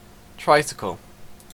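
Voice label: "tricycle", Canadian English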